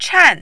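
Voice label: "chan" tone 4, Mandarin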